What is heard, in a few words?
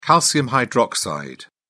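"calcium hydroxide", English, British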